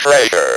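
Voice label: "treasure" English, Speak & Spell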